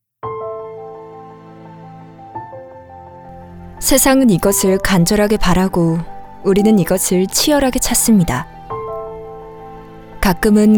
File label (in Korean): 나레이션 병원홍보 차분하고 따뜻한